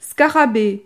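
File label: "scarabee", French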